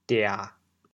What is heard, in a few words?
"dia1", chaoyang